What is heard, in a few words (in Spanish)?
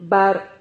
"bar", Silabas